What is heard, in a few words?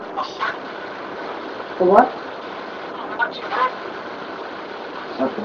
ghost box i'll punch then i'll punch you back